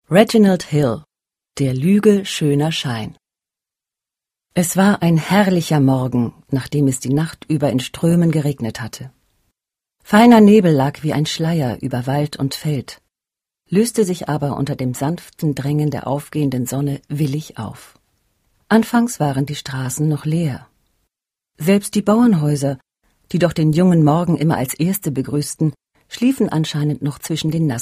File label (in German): Fremdtake Freundlich